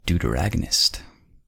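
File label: "deuteragonist", US English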